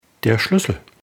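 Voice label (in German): "schluessel", German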